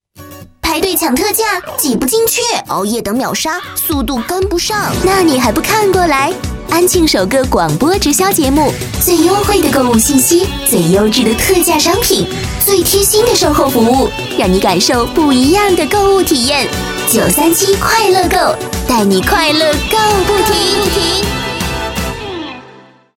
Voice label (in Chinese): 广告 促销 多角色 可爱; 937快乐购